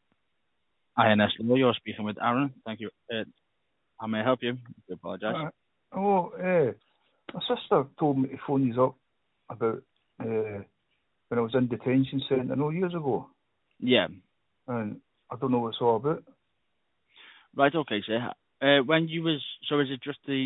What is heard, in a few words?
call recording